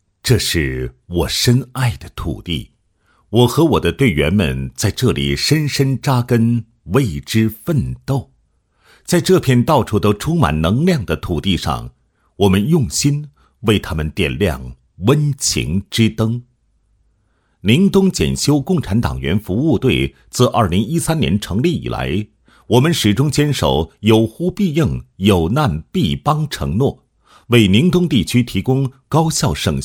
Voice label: 男 企业宣传 宣传片配音; 宁东供电公司

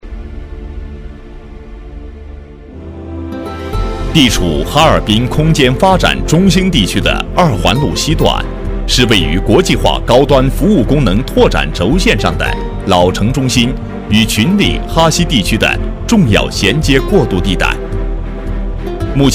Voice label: 男7 解说类 地产, 国语男声配音; 哈市地产; 全能型

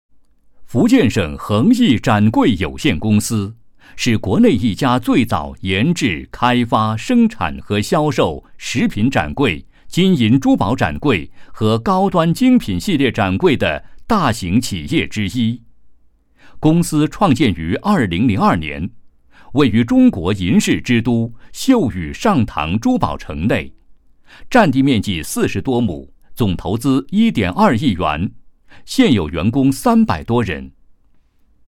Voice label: 男 企业宣传 宣传配音; 莆田恒艺终端展柜有限公司

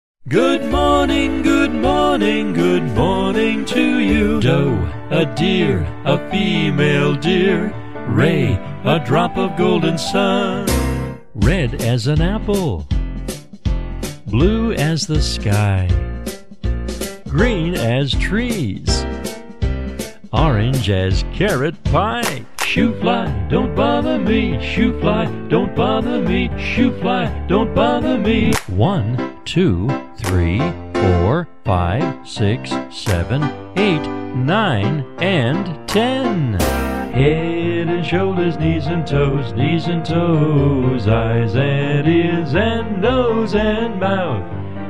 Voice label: 外籍男 小学课件和歌曲样音

